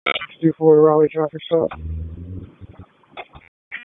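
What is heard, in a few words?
Police Southwest District Dispatch, p25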